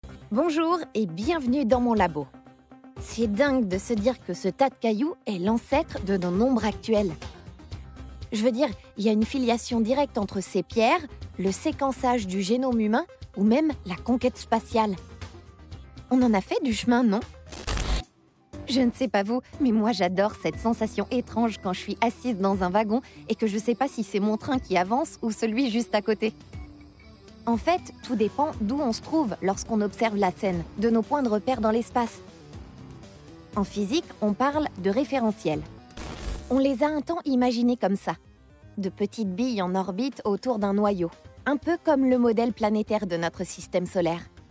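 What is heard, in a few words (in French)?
Démo - Interprétation Détendue, Comédienne; Nouvothé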